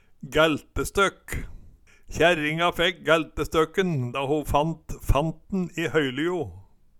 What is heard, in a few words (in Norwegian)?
"gæltestøkk", Numedalsmål